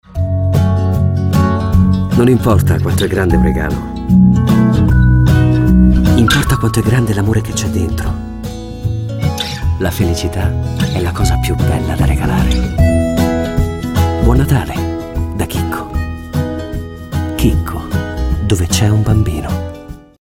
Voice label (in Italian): spot natale; chicco